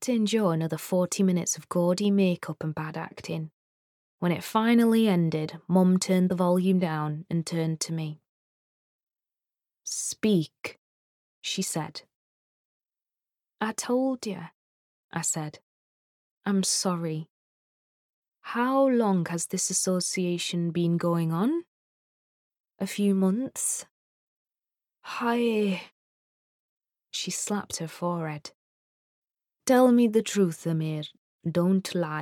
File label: Audiobook